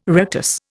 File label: "rictus", Indian